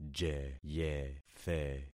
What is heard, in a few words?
"jeyethe", concat syllables; Affixing experiment